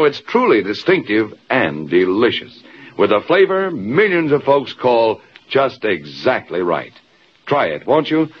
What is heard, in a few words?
Miracle Whip, Old Radio Ads